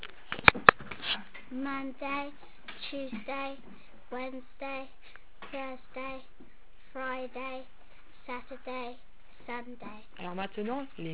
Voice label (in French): jours en anglais